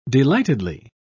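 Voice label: "delightedly", British English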